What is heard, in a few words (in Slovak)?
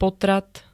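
"potrat", Slovak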